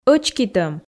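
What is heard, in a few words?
"ӧчкитӧм", Komi, female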